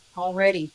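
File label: voice acting, es, female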